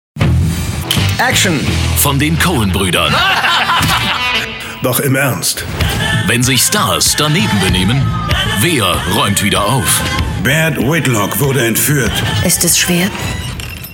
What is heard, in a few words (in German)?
Werbung Kino